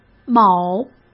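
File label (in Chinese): "某", Mandarin Chinese; chinese flashcards; hsk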